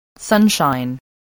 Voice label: "sunshine", spelling

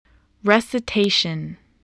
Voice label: "recitation", voice, english

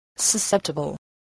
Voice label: "susceptible", English

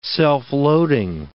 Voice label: "self-loading", English

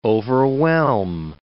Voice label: "overwhelm", English word

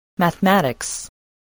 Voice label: "mathematics", spelling voice

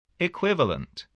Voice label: "equivalent", voice, english

